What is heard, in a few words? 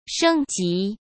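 "升级", Mandarin Chinese; chinese flashcards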